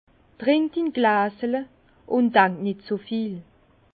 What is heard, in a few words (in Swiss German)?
"trink din glasele", Bas Rhin; vin; bois ton verre et ne pense pas trop